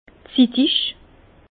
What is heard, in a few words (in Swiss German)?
"zittig", Bas Rhin; vin; mature